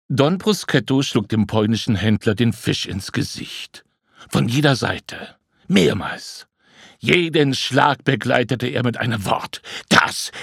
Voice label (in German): Das Hoerbuch